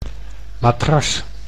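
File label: "matras", Dutch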